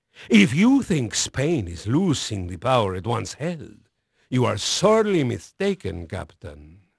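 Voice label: Spanish male, voice; Pirates Of The Caribbean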